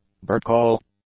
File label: "birdcall", English; nouns